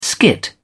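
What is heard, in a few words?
"skit", British English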